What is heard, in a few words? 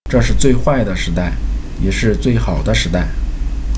microphone